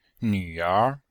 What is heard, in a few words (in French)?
"nv3er2", chinois; Cours de Chinois